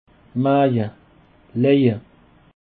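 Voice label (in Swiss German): "maeje", Alsatian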